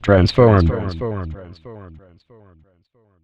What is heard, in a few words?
Transform, Robotic Vox; 90 sSampleCDs; Club Techno